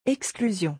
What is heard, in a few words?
"exclusion", French